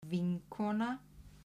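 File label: "vinkona", Icelandic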